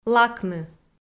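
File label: "лакны" Komi, female; kpv audio dict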